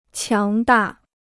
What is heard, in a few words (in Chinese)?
"强大", Chinese